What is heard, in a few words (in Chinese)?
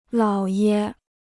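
"姥爷", Chinese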